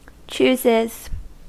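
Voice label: "chooses", English, US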